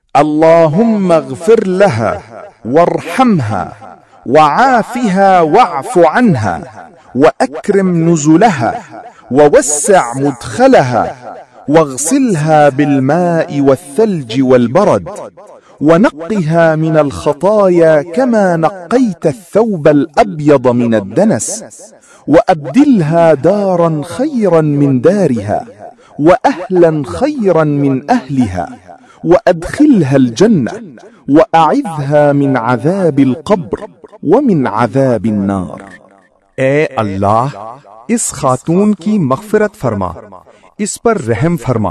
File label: Janaza Dua for Female with Translation; Allahummaghfirlaha Warhamha